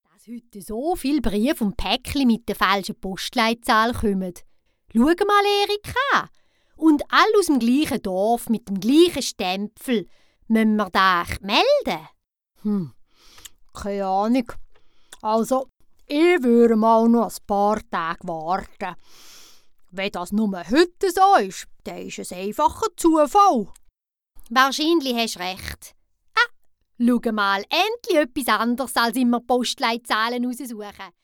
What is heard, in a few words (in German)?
Hoerprobe, Sprecherin